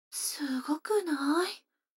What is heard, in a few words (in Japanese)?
女の子台詞, ASMR